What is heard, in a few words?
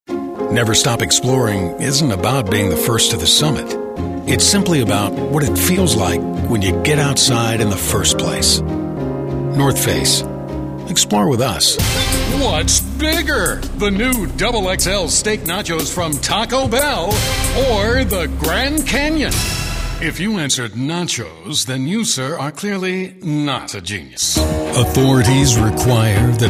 commercial